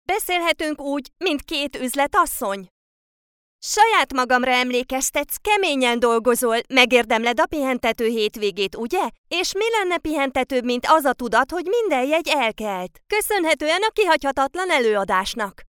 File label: child girl hungarian voiceover locutora hungria